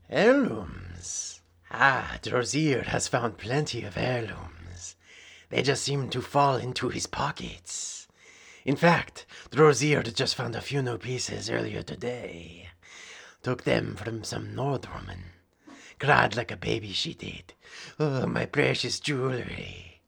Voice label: khajiit male

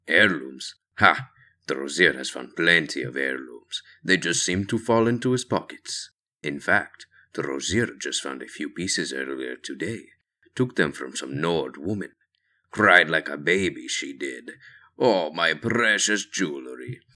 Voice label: khajiit audition

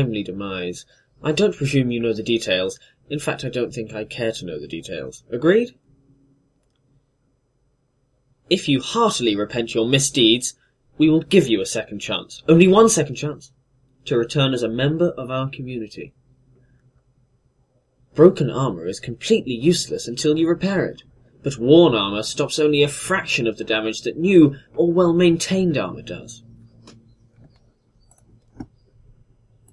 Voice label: breton, voice auditions; tes skywind

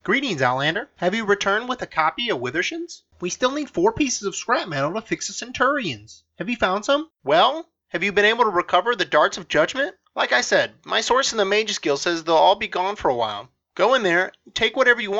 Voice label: bosmer, wood elf voice auditions

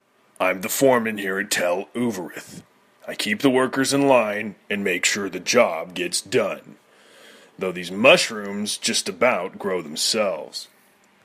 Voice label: orc voice auditions

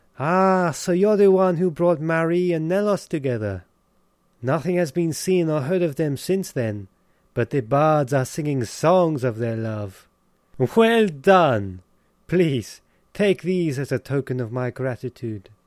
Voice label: breton audition french

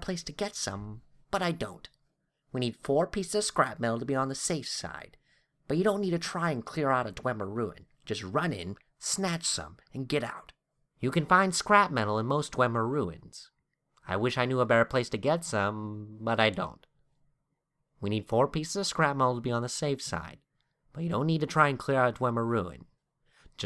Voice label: balanced wood elf, voice auditions

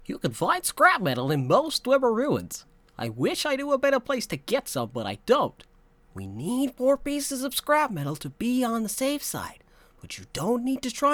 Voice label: voice, wood elf voice auditions

